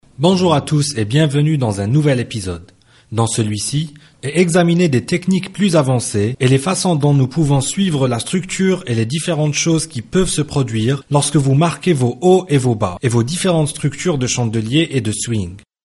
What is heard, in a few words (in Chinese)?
法语男声